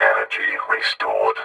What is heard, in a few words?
Vocoder Energy Restored; Pickups